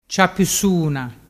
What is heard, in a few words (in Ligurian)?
"ciapusuna", dialettu